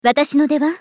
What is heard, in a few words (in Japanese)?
ファイター女･選択